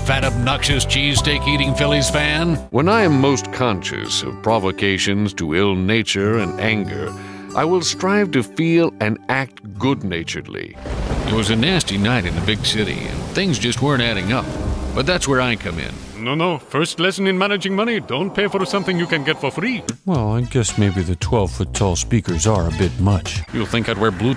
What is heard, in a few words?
character